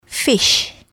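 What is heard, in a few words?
"fish", English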